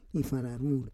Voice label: "ifararur", Roon